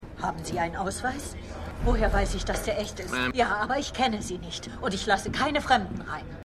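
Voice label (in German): Vorsichtige Altere Bewohnerin; Boston Strangler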